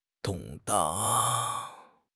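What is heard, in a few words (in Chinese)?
"动荡", Chinese; HSK6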